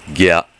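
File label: "gya", Japanese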